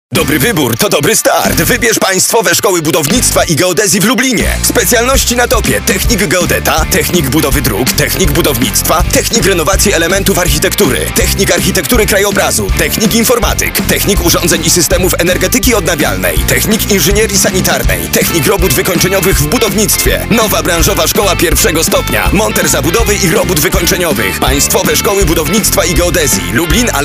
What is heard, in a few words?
commercial